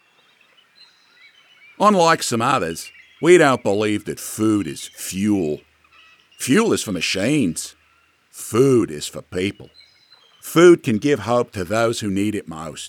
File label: Australian Accent; Omnivox